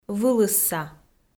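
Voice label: "вылысса", Komi, female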